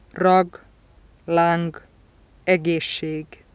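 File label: velar stop voiced final, hungarian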